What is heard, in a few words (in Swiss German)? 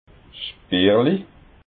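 "spirli", Alsatian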